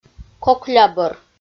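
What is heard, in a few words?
"коклябӧр" Komi, female; audio dict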